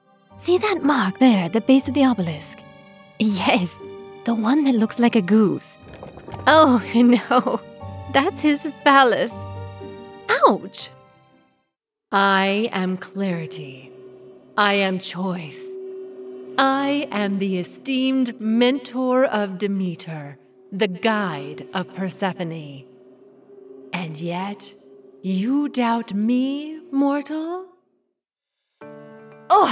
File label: Character Range